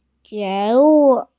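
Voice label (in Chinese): "jue2wu4", Mandarin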